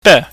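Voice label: "be", Cantonese, high falling tone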